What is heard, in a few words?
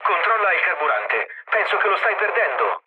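Italian tank damaged mood low, speech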